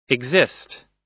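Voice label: "exist", American English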